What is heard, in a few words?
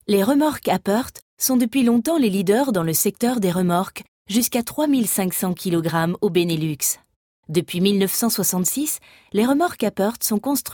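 French Belgian narration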